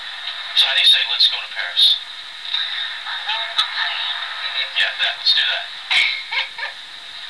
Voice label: french